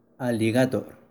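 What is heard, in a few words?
Alligator spanish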